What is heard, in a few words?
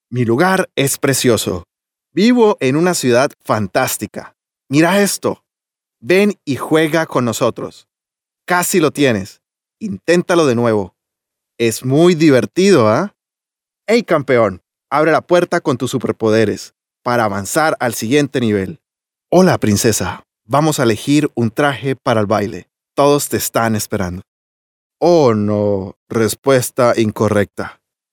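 Spanish male children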